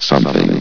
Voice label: "something", vox; S.P.L.U.R.T-Station-13